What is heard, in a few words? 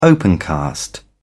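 "opencast", British English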